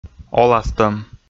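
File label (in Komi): "оластӧм", Komi, male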